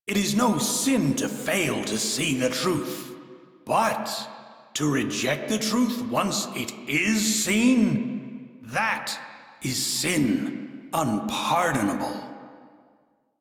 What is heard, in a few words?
Crew Idle, Male; Dialog; en; Warframe; Railjack Crew; Arbiters Hexis